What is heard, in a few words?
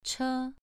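"che" Mandarin, second tone, pronunciation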